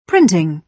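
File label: "printing", British English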